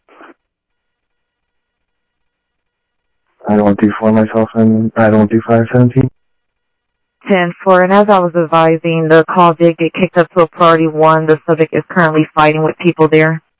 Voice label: scanner audio trunk